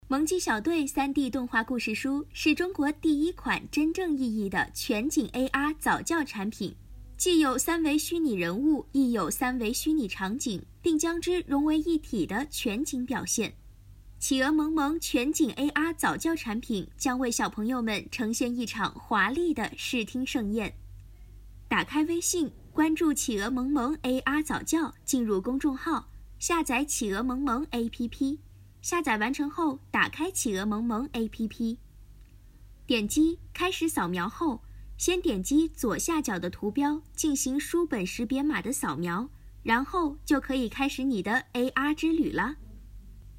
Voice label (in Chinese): 早教 产品 广告; 小鸡萌萌